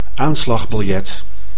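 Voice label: "aanslagbiljet", Dutch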